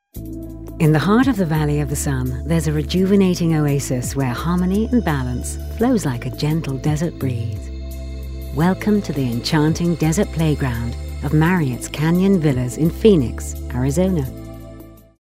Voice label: cool and jazzy